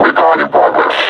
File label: reconnoiter search, vo combine suppressor